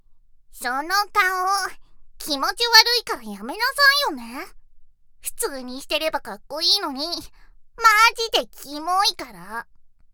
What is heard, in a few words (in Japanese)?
tundere